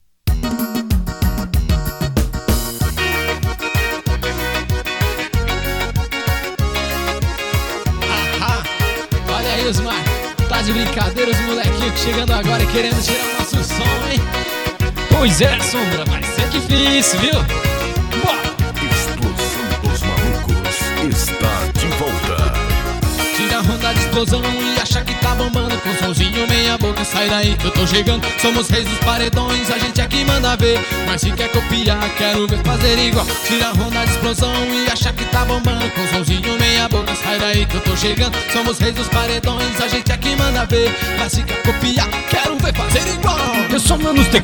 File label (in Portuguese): locutor vinhetas; banco de vozes ingles